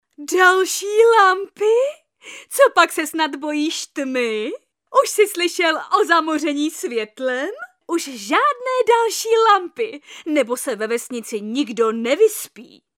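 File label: female Czech drama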